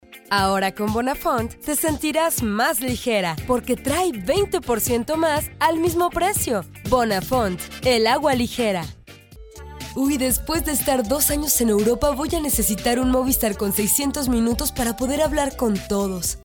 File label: locutora mejicana mexican voiceovers